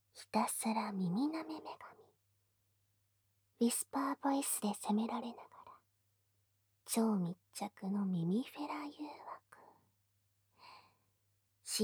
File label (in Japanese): タイトルコール, ASMR